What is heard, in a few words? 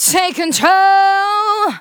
Phrase Female; Vengeance Samples; Phrases